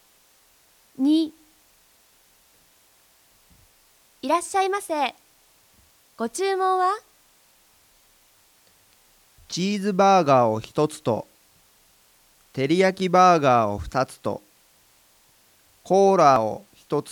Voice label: Conversation